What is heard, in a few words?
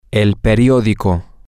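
newspaper, Spanish, male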